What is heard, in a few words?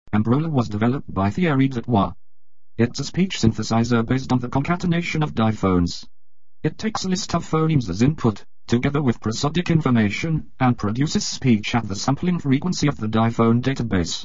mbrola